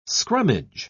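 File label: "scrummage", English, British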